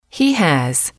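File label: "he has", English, female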